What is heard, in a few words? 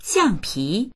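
"xiang4pi2", Mandarin Chinese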